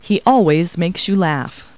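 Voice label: woman; Talking Clip Words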